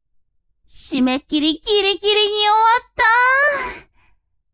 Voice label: woman